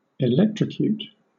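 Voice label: "electrocute", British English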